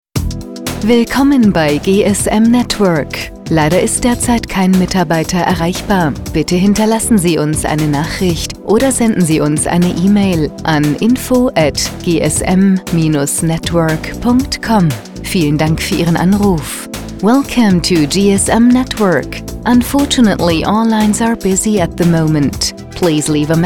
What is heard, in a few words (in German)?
Ansage; GSM Network